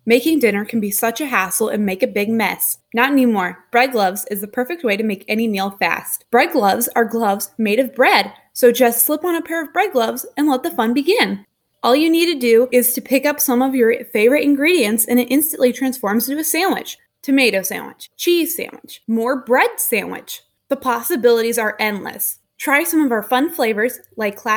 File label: commercial